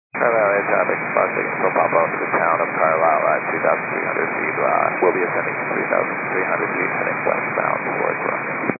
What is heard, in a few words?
122.925 MHz; scanner radio